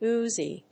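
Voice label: "Uzi", English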